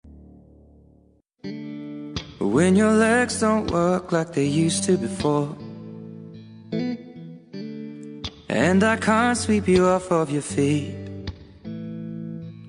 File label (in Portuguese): lgbt masc, Dia dos Namorados para Namorado LGBT – Voz Masculina